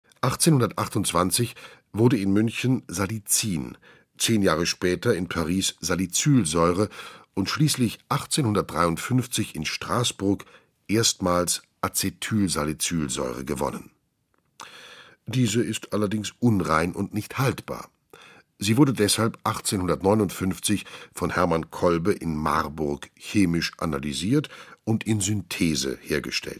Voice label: doku; aspirin

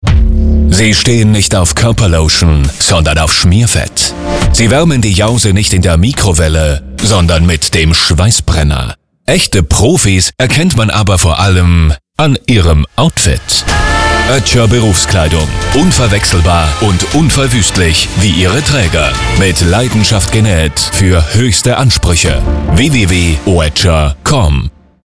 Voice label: Werbung Berufskleidung; Oetscher

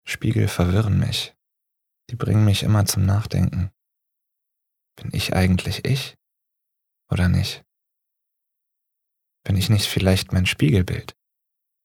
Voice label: Innerer Monolog

